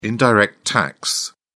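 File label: "indirect tax", British English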